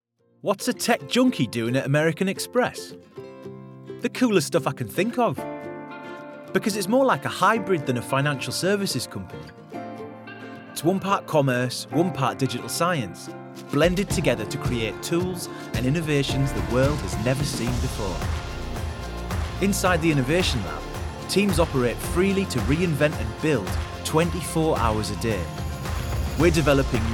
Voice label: Corporate, Northern English

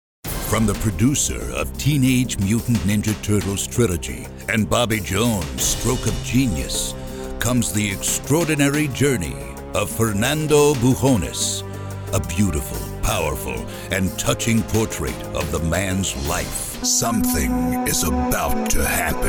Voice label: Trailer

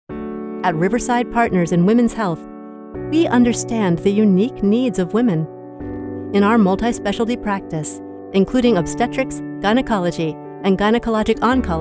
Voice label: VoiceMail

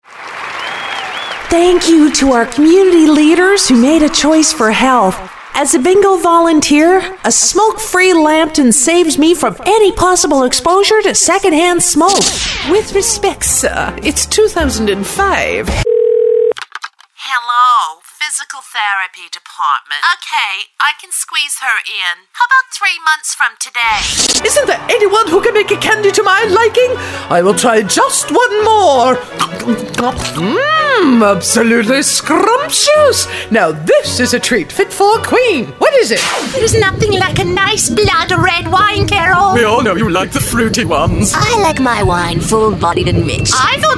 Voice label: Character

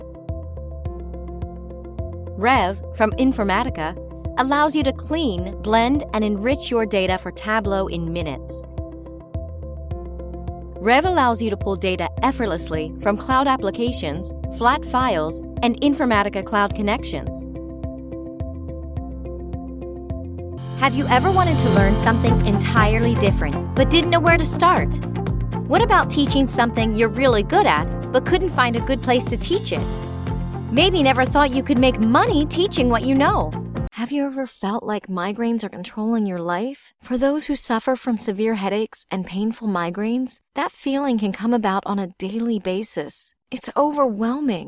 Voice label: Commercial

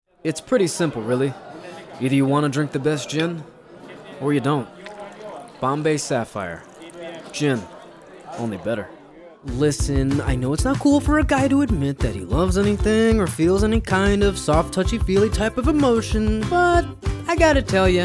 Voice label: Commercial VO; English (British)

